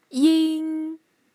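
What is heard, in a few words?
"ying", Mandarin Chinese, tone 1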